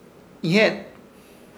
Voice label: "Ihyet", Ishir Chamacoco; amigo o companero